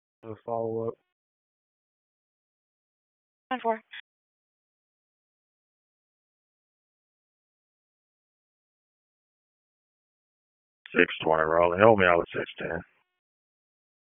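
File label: Police Southwest District Dispatch, p25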